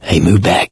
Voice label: backup quiet, survivor voice mechanic; source engine; left 4 dead 2